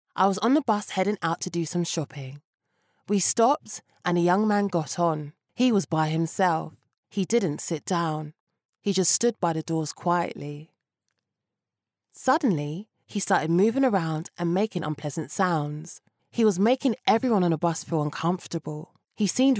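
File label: English British, female, voice over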